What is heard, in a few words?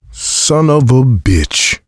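reaction negative, male; survivor; coach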